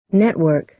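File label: "network", American English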